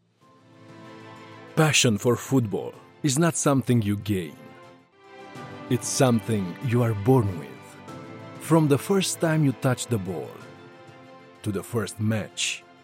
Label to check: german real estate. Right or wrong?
Wrong